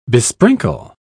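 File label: "besprinkle", British English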